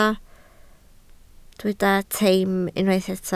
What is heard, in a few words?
"teim", Welsh